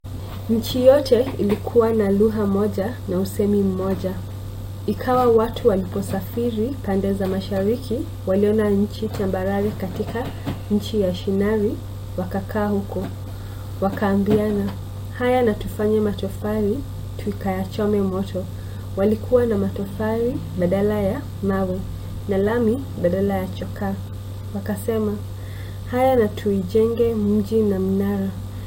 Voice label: swahili

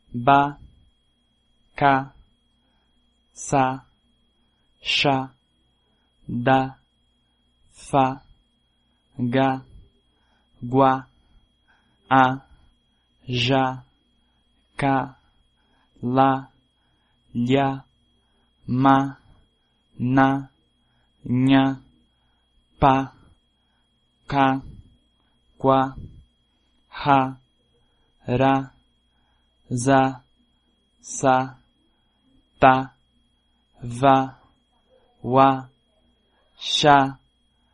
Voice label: consonants pt brazil

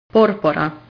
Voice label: "porpora", italian

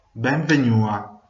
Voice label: welcome, venetian

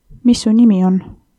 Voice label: name, estonian; Mis su nimi on?

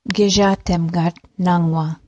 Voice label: it is hot today, ojibwe

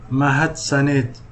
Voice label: thanks, somali

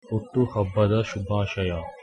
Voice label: birthday, kannada

